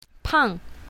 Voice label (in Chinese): "pang4", pinyin south